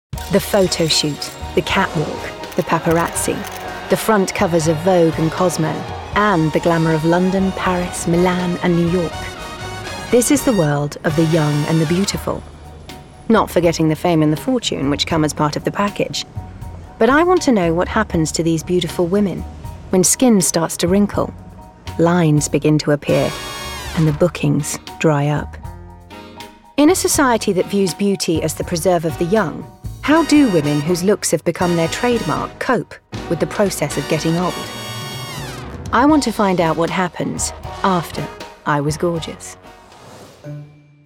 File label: Documentary